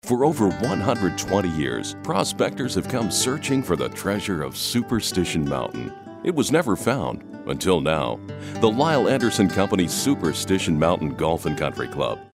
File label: warm and fuzzy